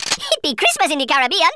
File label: collect, Speech Rasta